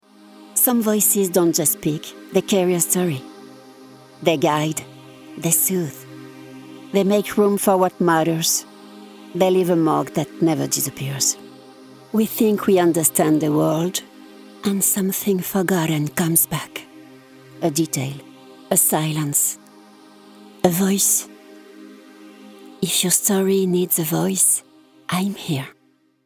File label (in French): PRESENTATION ANGLAIS